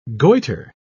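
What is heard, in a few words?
"goiter", British English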